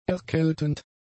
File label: "erkältend", German; adjectives